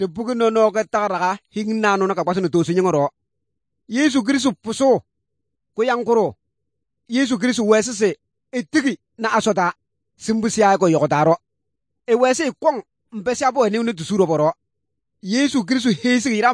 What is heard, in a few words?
good news, kabiye bible